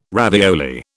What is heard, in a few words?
"ravioli", British